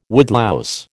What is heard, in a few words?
"woodlouse", American